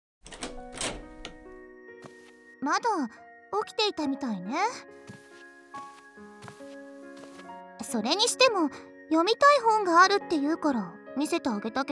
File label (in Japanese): 添い寝ボイス